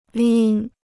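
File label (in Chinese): "拎" Chinese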